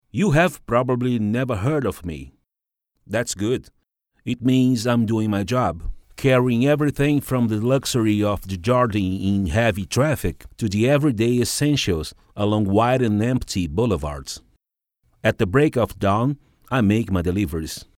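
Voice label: Brazilian Portuguese, male, English-Brazilian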